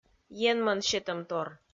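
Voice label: "енмӧн сетӧмтор", Komi, female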